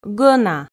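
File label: "гӧна", Komi, female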